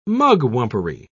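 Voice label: "mugwumpery", British English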